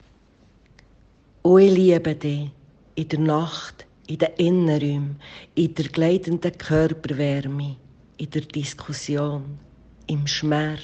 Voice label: Berndeutsch